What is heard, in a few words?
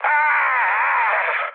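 Italian damaged pilot mood med, speech; War Thunder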